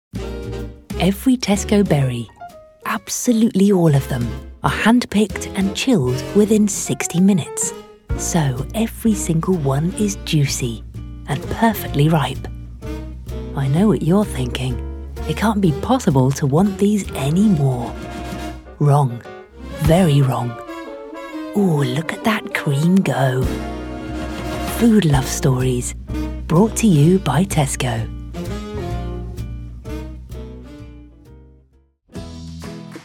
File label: commercials